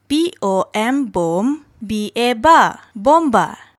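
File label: "bomba", Malay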